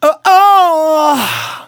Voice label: Phrase Male; Vengeance Samples